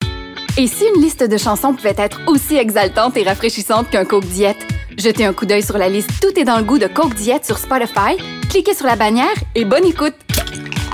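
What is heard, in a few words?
Canadian French; coke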